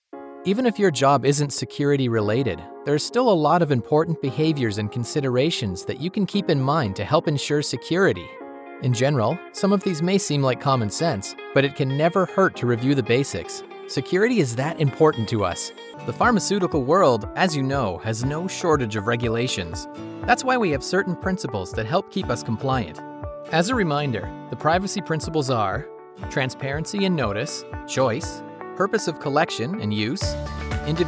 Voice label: english canadian corporate videos